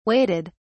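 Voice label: "waited", British English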